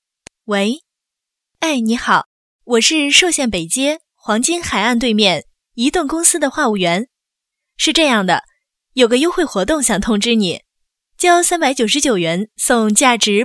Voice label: 女 真人电话, 国语女声配音 彩铃广告; 银利移动专营店

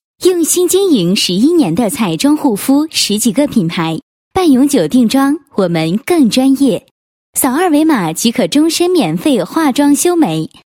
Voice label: 女 宣传广告 半永久定妆美容广告

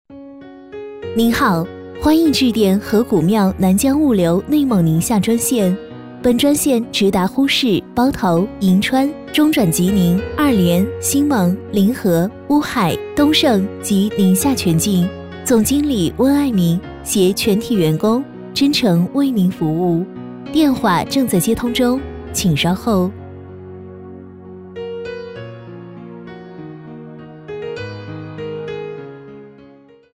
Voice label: 女 彩铃 物流; 河古庙南江物流

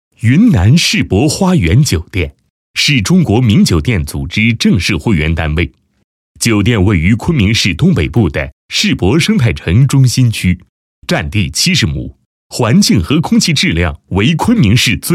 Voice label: 男 企业宣传 酒店宣传; 云南世博花园酒店

